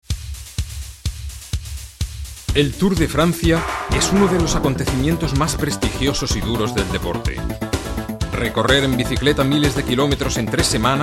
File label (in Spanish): text cast documental